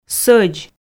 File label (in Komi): "сӧдз", Komi